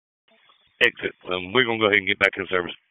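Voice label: Public Safety Tactical, p25; wake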